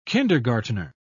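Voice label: "kindergartner", British English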